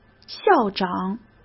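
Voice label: "校长" Mandarin Chinese; chinese flashcards; hsk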